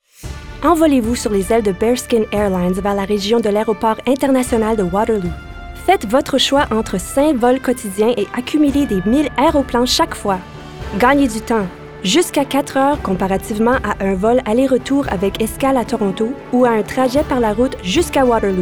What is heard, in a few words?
FRENCH